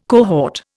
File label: "cohort", Indian